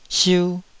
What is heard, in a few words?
"siu2", Taiwanese